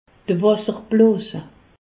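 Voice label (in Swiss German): "wasserblos", Alsatian; medecine; vessie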